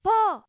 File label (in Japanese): "ふぉー", 明るい声